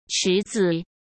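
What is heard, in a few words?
"池子", Mandarin Chinese; hsk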